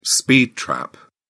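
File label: "speed trap", English, British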